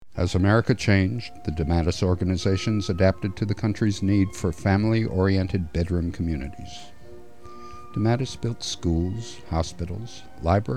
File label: CORPORATE NARRATION